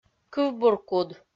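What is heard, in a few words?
"кывбуркуд", Komi, female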